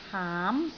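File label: "haam2", Cantonese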